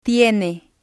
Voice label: "tiene", Spanish